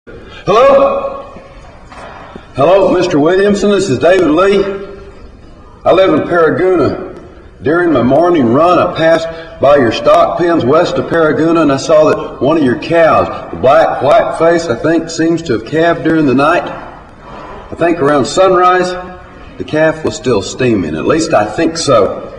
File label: Phone Call